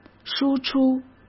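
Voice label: "输出", Mandarin Chinese; chinese-flashcards; hsk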